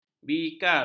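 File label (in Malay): "bikar", Malay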